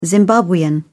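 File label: "zimbabwean", British English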